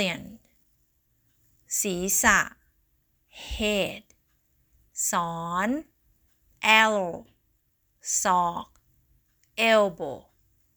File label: Learning Thai words